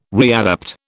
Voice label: "readapt", British